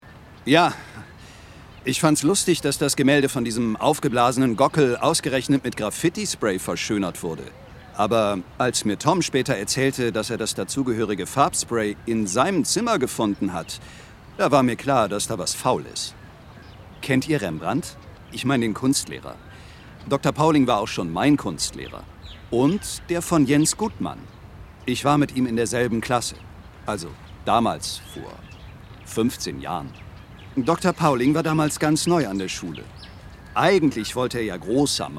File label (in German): Hoerspiel; Sprayer